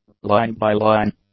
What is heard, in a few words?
"line-by-line", english; adjectives